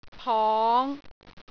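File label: "pong5", Cantonese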